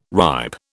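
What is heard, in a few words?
"ripe", British English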